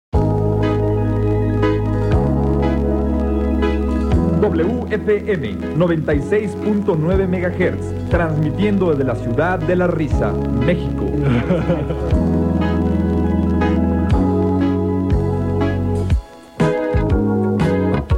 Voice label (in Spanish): Transmitiendo desde la ciudad de la risa, radio; 1986